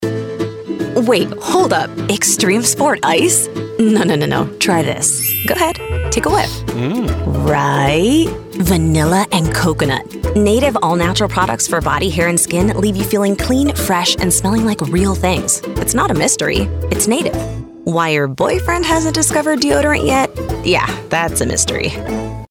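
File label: Native Commercial Conversational Funny Upbeat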